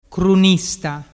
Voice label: "crunista", dialettu